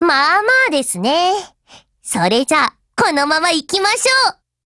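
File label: win, Japanese voice; GF Alarm; USAS12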